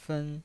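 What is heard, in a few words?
"fen" Mandarin Chinese, first tone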